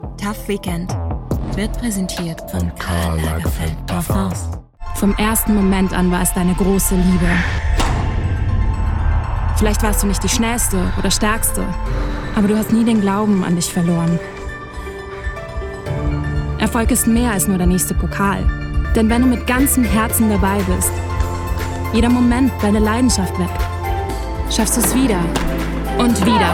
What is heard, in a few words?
Commerical Mix